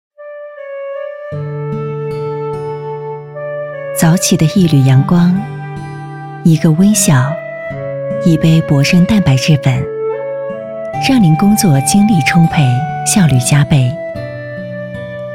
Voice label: 女 广告 蛋白粉; 铂生

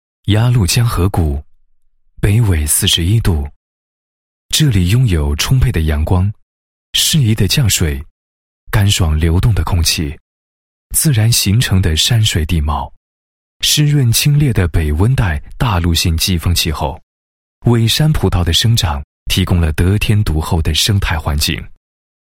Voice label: 男 酒类 葡萄酒宣传解说词; 鸭绿江

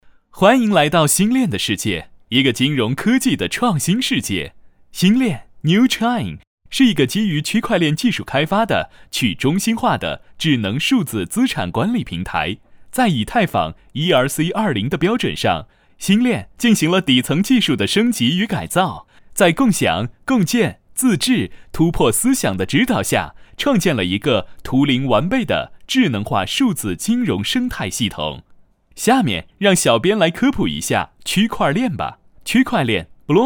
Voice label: 男, 科技类专题; 新链

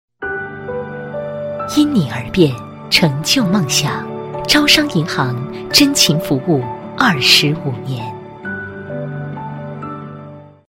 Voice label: 国语女声配音，广告 银行类 大气; 优雅; 招商银行

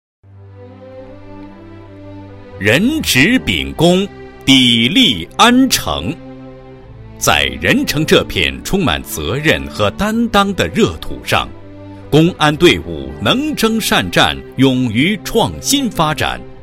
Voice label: 男 汇报 警务纪实; 实战指挥体系警务纪实

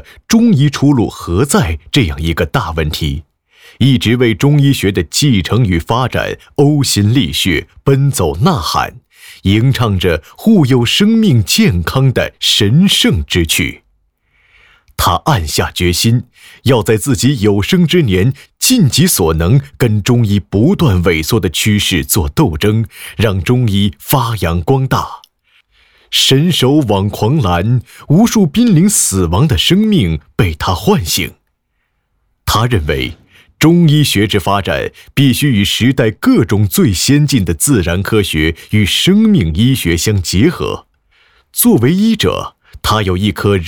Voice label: 男 人物纪录片 中医 感人肺腑

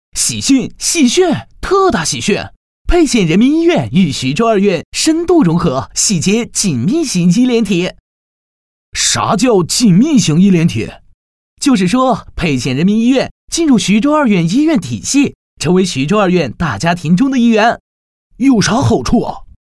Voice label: 男 医院广告; 沛县人民医院一分钟

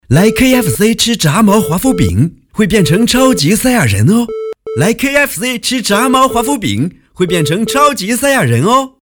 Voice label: 男 试音; KFC

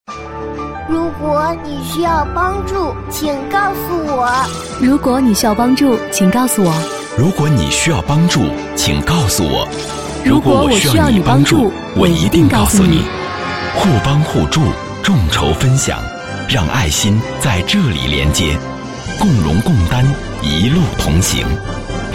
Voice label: 男 台宣 高端磁性 男声, 国语男声配音; 磁性专题; 巴州1077

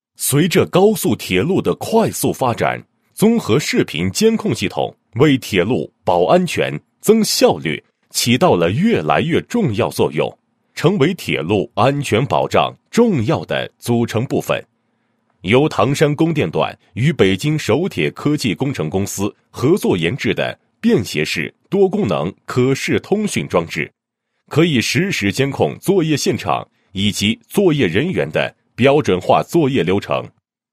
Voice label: 男, 专题; 便携式多功能可视通讯装置

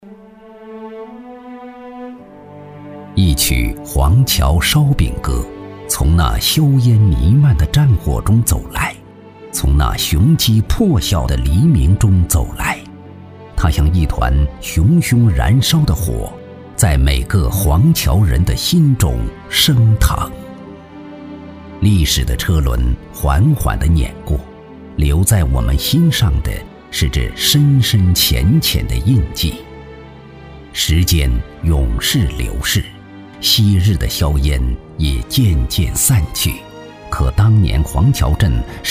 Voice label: 男, 国语男声配音, 磁性浑厚抒情; 黄桥烧饼

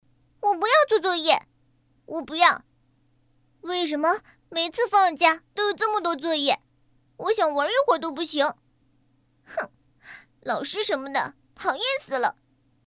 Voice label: 女, 少女

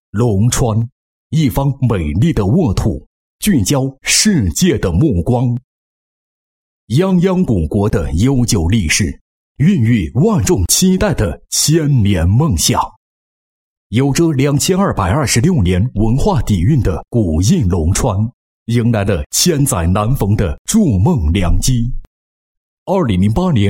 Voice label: 男, 宣传片; 龙川工业园

